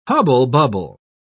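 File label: "hubble-bubble", English, uk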